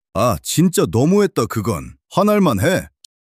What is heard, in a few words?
anger